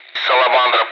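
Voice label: Speech, Russian, air traffic control; Callsign; Salamander; DCS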